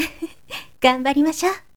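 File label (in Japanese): "ganbarimasyo", Japanese woman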